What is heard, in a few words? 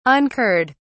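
"incurred", British English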